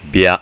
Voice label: "bya", hiragana